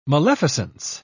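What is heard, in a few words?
"maleficence", English, uk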